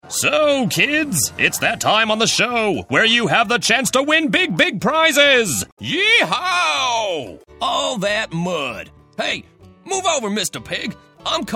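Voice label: American English, toys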